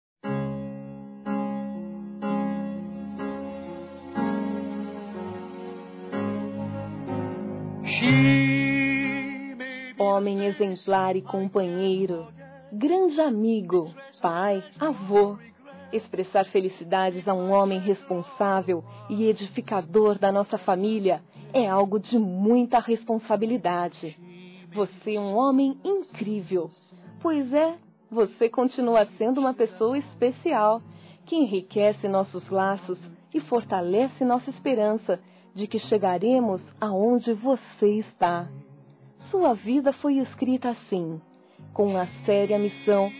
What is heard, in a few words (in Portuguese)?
Aniversário Avô Feminino; Aniversários; Aniv Familiar